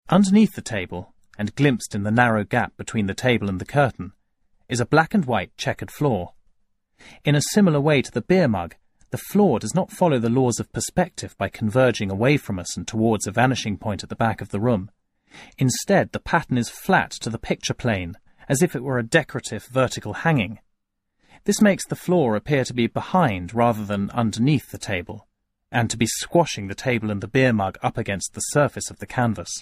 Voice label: Descriptive Narration; Still Life with a Beer Mug by Fernand Leger; Stage 3